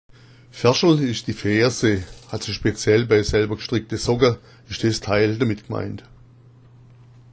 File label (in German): ferschel, schwaebisch